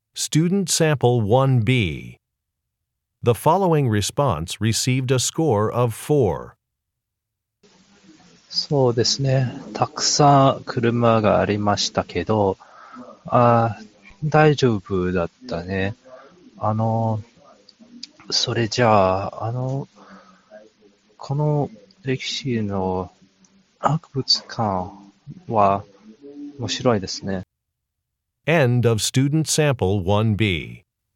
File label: Japanese conversation